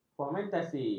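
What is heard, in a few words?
"fomentasi", Malay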